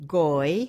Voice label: "goy", Yiddish